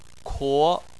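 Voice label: "kuo" second tone, Chinese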